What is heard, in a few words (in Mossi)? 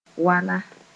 "wala", Mooré